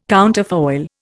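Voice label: "counterfoil", Indian English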